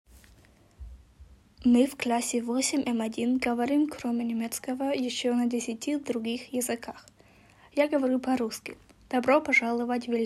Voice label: russisch

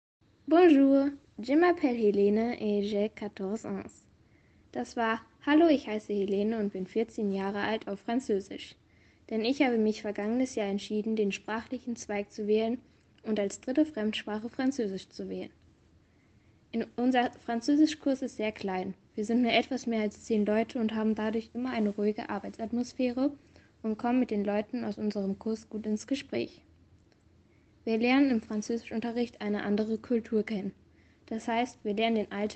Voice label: franzoesisch; dritte fremdsprache

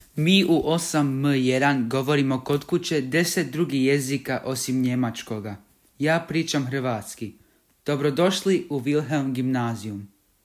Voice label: kroatisch

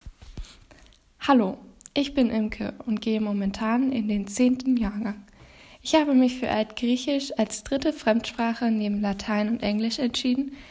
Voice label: griechisch; dritte fremdsprache

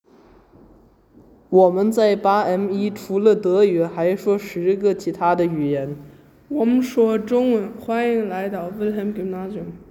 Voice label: chinesisch

